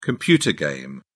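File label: "computer game", English, British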